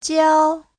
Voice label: "jiao1", chinese